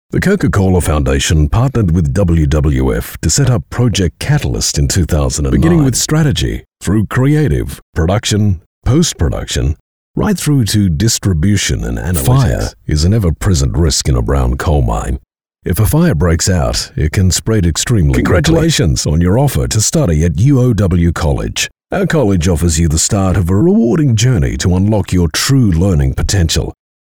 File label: Natural